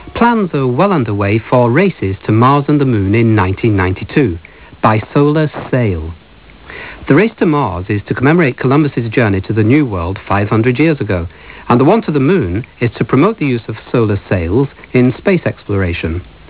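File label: ENG M, English male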